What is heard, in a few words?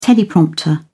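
"teleprompter", British English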